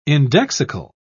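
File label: "indexical", British English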